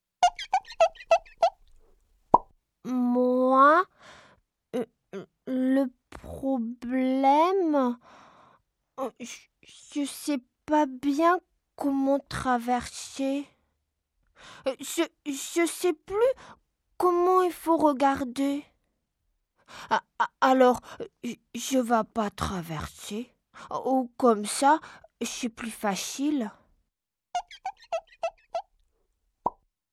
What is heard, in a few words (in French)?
Enfant